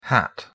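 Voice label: "hat" pronunciation English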